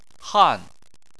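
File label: "han", Chinese, fourth tone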